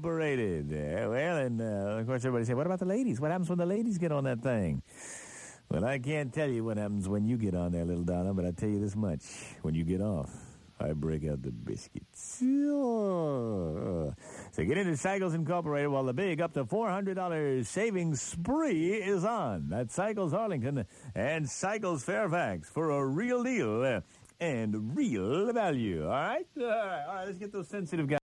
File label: Honda commercial; Greaseman